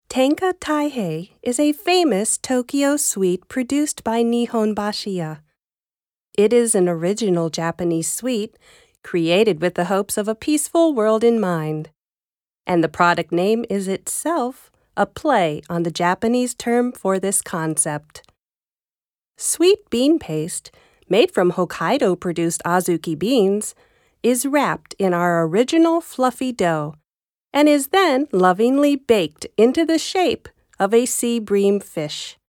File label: english; tenka taihei